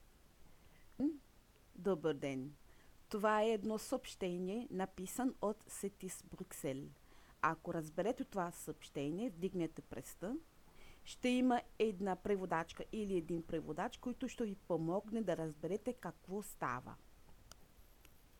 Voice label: Bulgare

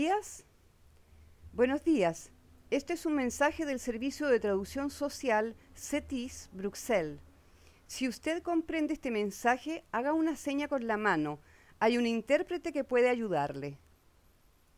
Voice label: Espagnol

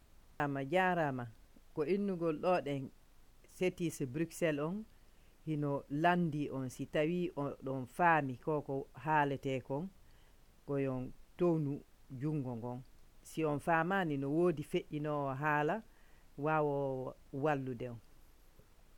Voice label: Peul

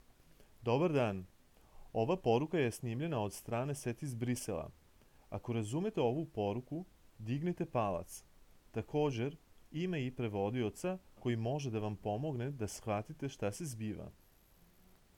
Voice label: Croate

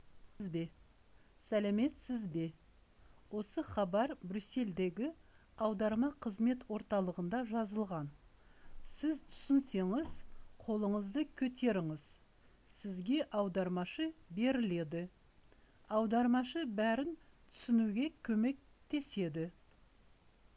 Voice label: Kazakh

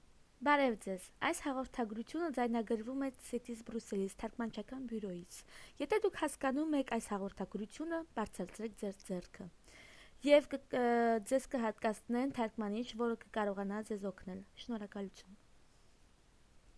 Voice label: Armenien

